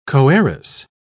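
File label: "coheiress", British English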